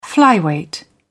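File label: "flyweight", British English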